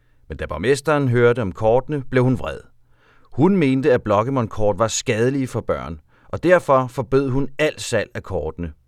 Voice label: Intro VO; Voices; Quarry